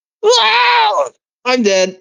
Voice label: voice message